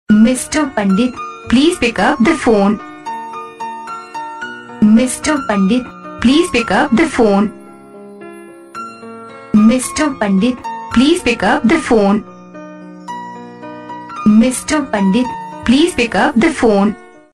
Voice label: Please Pick Up The Phone Hindi Name Ringtone